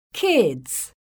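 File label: "KIDS", English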